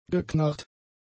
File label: "geknarrt", German; adjectives